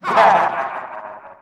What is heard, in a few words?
wizard taunt; ragemap2023